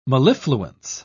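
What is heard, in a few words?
"mellifluence", British English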